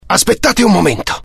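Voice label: curious, Italian; mohaa; dialogue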